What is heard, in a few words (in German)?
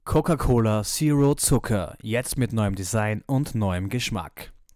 Zitat Werbung; cola